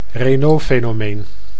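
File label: "Raynaudfenomeen", Dutch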